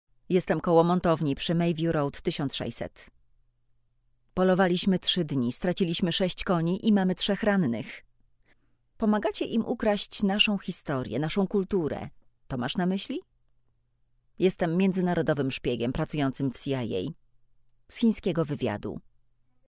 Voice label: locutora polaca polish voiceover

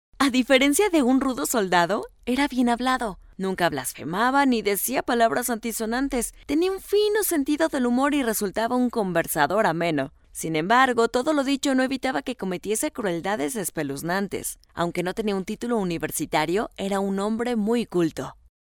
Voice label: locutora mexico mexican voiceover

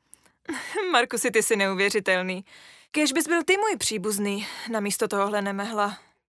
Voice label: czech voiceover locutora checa; Locutoras checas de doblaje de series; locutores checos

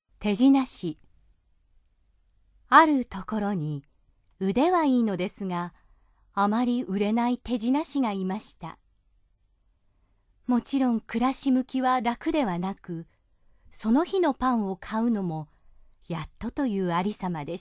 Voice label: Japanese voiceover, locutora japonesa

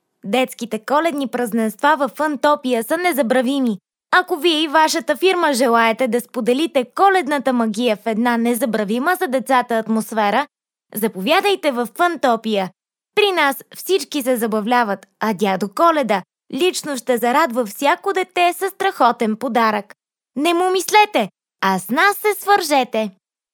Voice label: bulgarian voiceover locutora bulgaria; locutora de Bulgaria

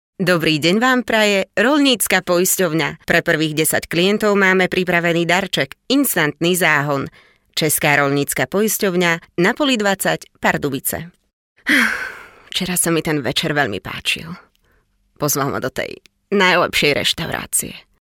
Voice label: slovak voiceover locutora eslovaca; locutores eslovacos

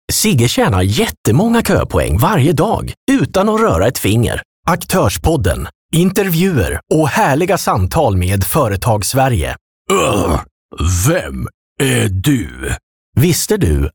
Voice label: locutor sueco swedish voiceover

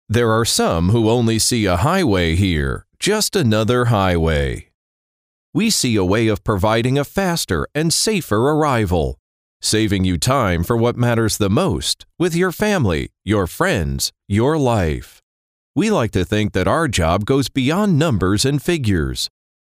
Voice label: american voiceover locutor norteamericano; North American male voice over

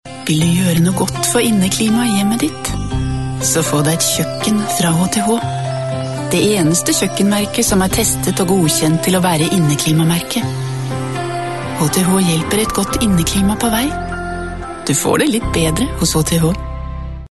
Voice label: locutora noruega norwegian voiceover

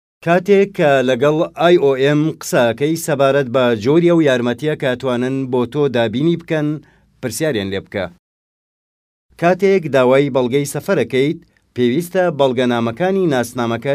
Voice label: kurdish sorani male voiceover; Kurdish sorani voice over talent

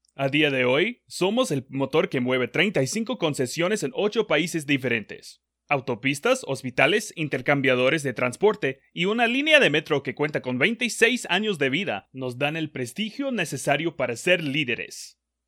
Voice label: locutor mexicano mexican voiceover; locutor de México

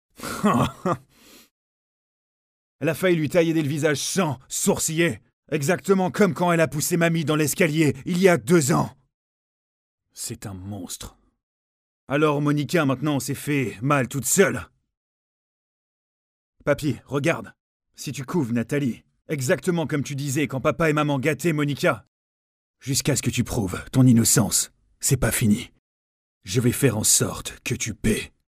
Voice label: locutor frances french voiceover; french dubbing

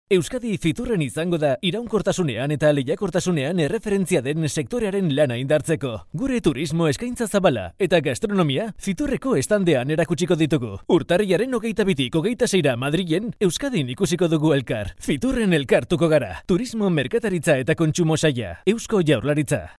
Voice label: locutor euskera basque voiceover

